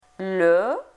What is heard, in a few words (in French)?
"le", singulier masculin; francais; Leçon3. Dans la classe